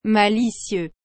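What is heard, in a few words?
"malicieux", French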